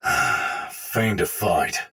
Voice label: patrol spoken soldier sword agent male; Sinecure

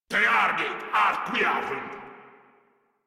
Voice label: Player Spotted, Grineer Male; Warframe

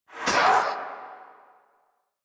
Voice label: Pain; Warframe; Zariman; Zariman Wraith

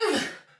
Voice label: Attack, Soldier Female, Battle; Dialog; Barks; Sinecure

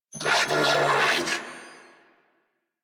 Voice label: Reload, Female; New War; Narmer Grineer

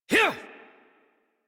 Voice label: Horse Sprint Adult; Warframe; Duviri

